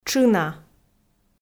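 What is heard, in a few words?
"тшына", Komi, female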